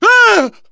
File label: fall short, survivor voice; manager; Left 4 Dead 2; left4dead2 dlc2